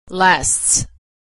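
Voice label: "lasts", American English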